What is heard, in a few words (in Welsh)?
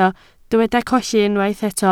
"colli", Welsh